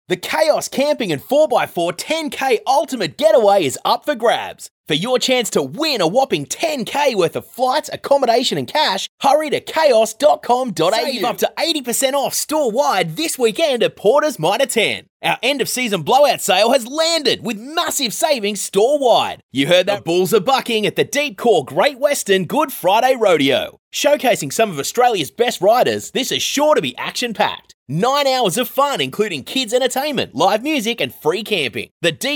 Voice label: Hard Sell